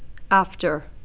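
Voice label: "after", Canadian, female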